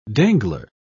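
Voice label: "dangler", British English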